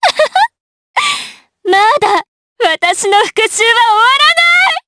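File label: Vox Victory, Japanese; DarkFrey; kingsraid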